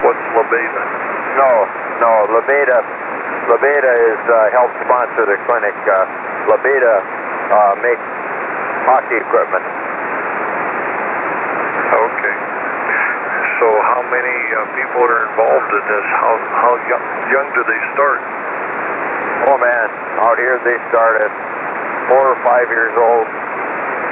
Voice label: ao40 qso